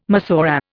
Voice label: "Massorah", Indian